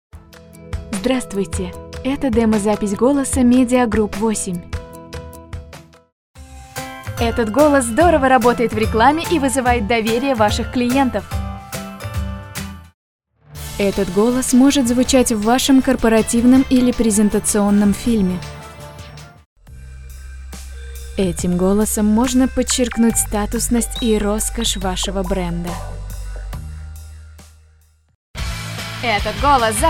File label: female